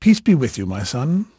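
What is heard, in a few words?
English male, voice; Pirates Of The Caribbean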